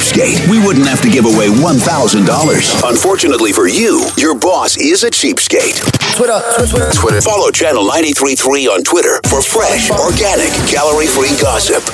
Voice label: radio men, radio imaging

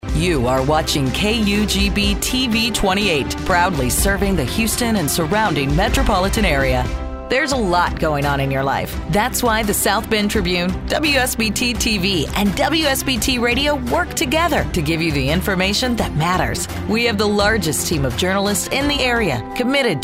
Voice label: tv affiliate women

